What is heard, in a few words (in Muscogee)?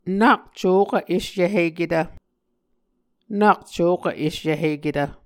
nak cokv esyvhiketv, muscogee